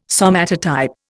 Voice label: "somatotype", Indian